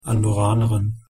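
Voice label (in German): "andorranerin", German; pronunciation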